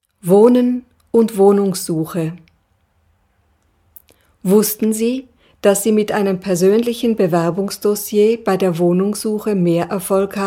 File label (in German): deutsch